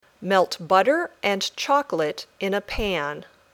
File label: Dictation